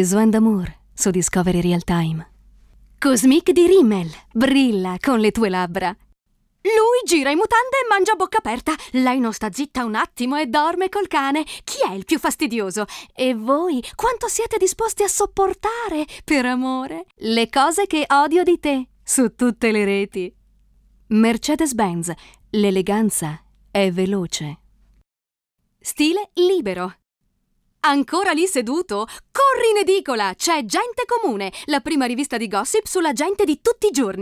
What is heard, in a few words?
spot